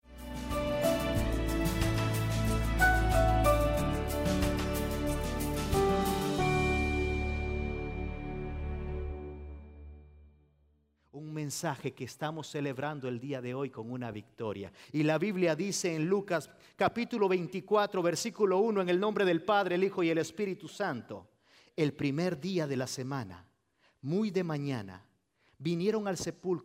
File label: Spanish Sermon